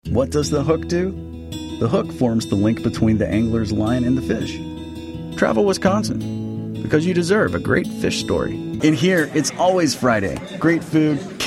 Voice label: Commercial